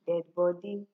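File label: "dead body", Nigerian Pidgin, female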